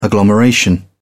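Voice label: "agglomeration", British English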